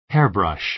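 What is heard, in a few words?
"hairbrush", American English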